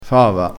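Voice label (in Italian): "fava", vocabolario dialettale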